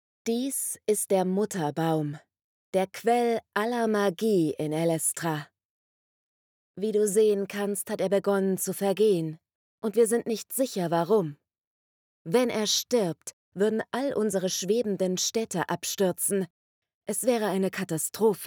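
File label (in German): Gaming Fantasy erklaerend, sprecherin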